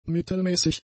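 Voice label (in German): "mittelmäßig", German; adjectives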